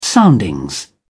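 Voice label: "soundings", British English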